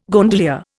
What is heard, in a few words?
"gondolier", Indian